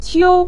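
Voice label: "qiu", Mandarin Chinese, neutral tone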